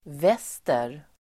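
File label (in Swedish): "väster", Swedish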